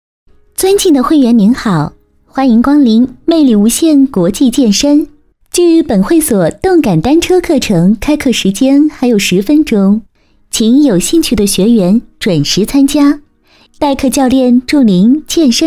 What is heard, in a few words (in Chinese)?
女 广播 动感单车